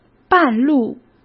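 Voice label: "半路", Mandarin; chinese-flashcards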